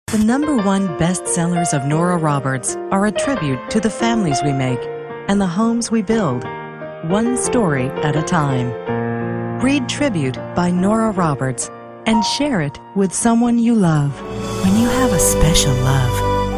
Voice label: locutora ingles americano

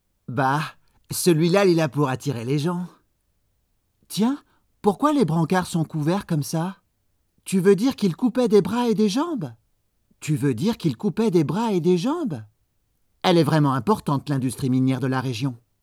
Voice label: locutor frances

